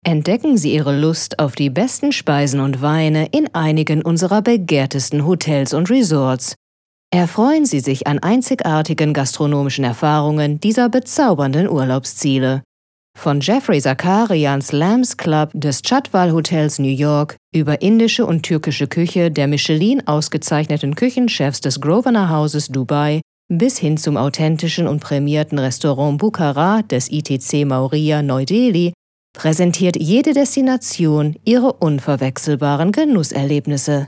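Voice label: German IVR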